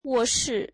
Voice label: bedroom, mandarin

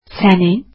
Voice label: your, azeri

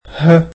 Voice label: "his", egyptian

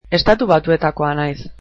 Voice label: Im from the US, basque

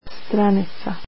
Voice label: "page" croatian

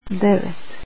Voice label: "nine" croatian

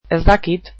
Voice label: I dont know, basque

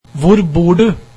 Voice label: Where do you live, norwegian

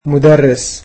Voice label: "teacher", egyptian

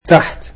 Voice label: "under", egyptian

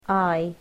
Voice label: "eye", english

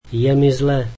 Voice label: I am sick, slovak

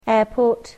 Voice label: "airport", english

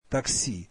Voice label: taxi, russian; такси [taksi]

